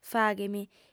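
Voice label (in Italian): "faghe mi", lingua sarda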